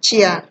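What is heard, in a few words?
"chair", Nigerian Pidgin, female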